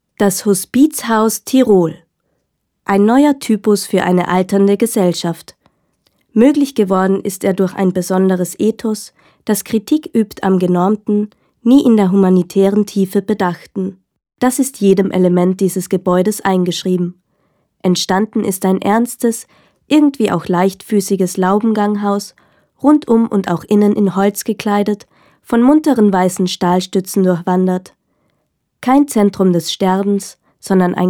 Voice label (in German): Audioguide